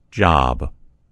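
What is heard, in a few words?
"job", American English; gcompris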